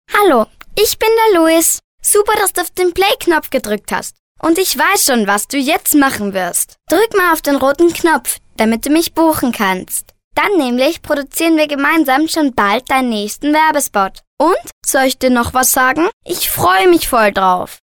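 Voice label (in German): Kinder Neutral, Kinderstimmen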